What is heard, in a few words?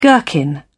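"gherkin", British English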